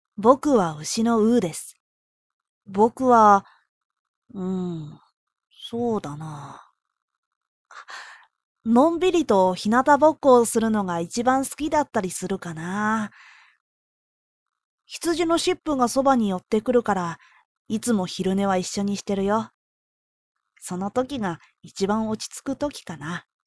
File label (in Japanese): スローな感じの少年; 神のきまぐれ; ウー; 「僕は牛のウーです。僕は･･･そうだなぁ･･･のんびりと日向ぼっこするのが一番好きだったりするかなぁ？